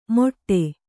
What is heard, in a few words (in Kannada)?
"ಮೊಟ್ಟೆ", Kannada